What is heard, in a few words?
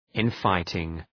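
"infighting", English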